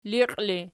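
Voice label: "liqi" ergative, Archi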